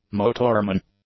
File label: "motorman", English